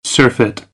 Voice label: "surfeit", American, English; ɝː - ɜː